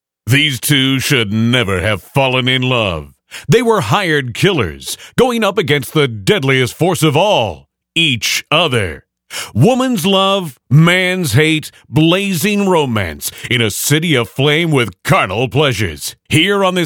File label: voice over